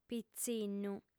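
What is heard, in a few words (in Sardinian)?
"pitzinnu", Sardinian, female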